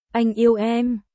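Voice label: Vietnamese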